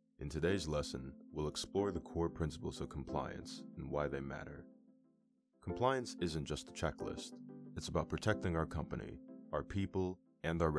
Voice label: E Learning; American voice actor